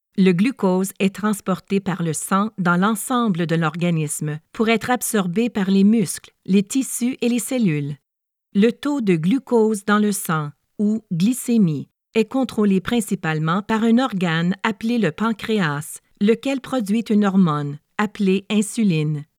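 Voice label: narration medical french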